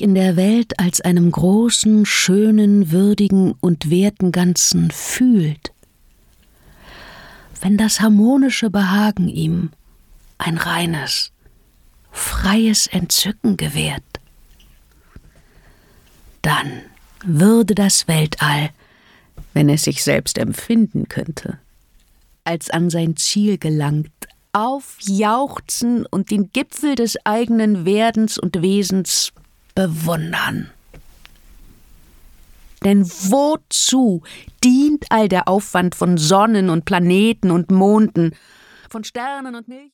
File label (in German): Erzaehltext Goethe Natur